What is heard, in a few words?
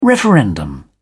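"referendum", English, uk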